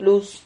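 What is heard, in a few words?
"plus", Spanish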